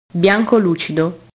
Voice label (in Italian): "bianco lucido", italian